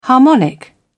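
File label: "harmonic", British English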